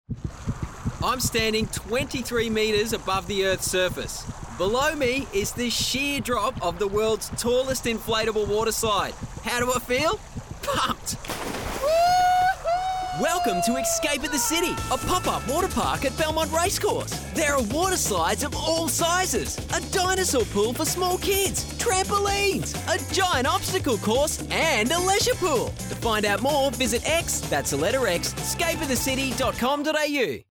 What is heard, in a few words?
Radio Ad; Xscape at the City